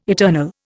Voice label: "eternal", Indian